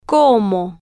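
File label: "como", Spanish, female, slow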